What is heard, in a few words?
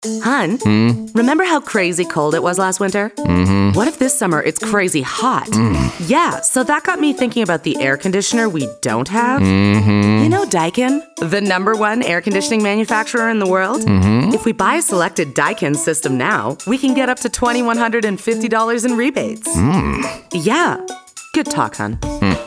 Radio Spot; Daikin